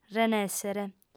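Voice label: "renèssere", Sardinian, female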